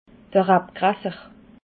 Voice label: "rabgrasser", alsace

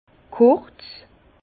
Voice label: "kurz", Bas Rhin; vin; courte

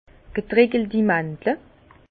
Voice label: "getreckelti", Bas Rhin; vin; amandes séchées

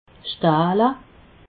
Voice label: "stahle", alsace

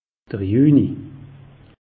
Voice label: "juni", alsace; meteo

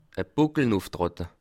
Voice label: "buckel", alsace

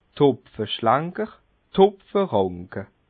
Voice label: "hopfeschlenker", alsace

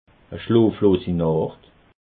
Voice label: "schloflosi", alsace

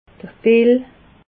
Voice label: "dill", alsace

